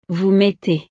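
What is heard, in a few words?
"vous mettez", French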